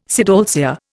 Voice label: "sidalcea", Indian